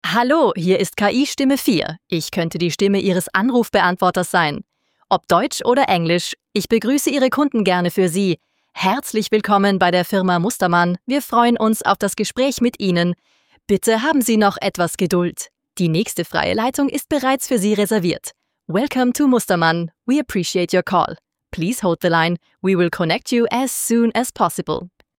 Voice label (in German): KI-Stimme